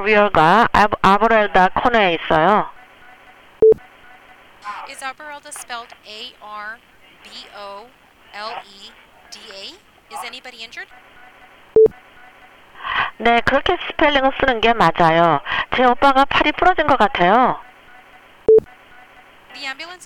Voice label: 911 Reporting an Accident Korean without interpretation